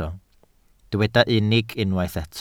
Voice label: "unig", welsh

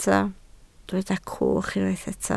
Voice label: "cwch", Welsh

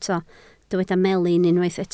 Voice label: "melin", Welsh

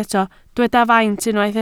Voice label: "faint", Welsh

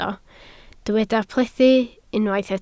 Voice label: "plethu", Welsh

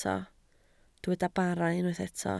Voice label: "bara", Welsh

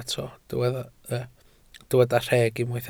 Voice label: "rheg", Welsh

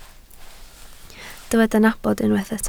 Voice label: "nabod", Welsh

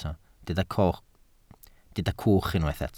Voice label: "cwch", Welsh

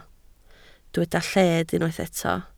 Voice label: "lled", Welsh

